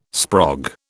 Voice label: "sprog", British